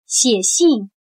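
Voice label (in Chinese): "写信" Mandarin Chinese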